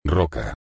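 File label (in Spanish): "roca", Spanish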